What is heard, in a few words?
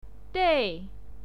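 "dei", Mandarin Chinese, fourth tone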